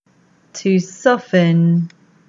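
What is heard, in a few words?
English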